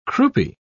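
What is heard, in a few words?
"croupy", British English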